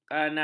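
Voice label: "kanal", Malay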